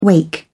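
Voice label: "wake", British English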